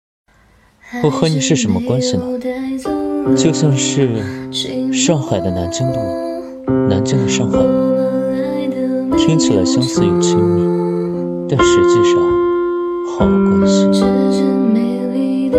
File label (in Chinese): 配音